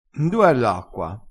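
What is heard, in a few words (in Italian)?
"n du", dialettale crespinese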